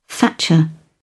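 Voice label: "thatcher", British English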